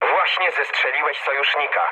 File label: pol player killed ally mood low, speech pol; War Thunder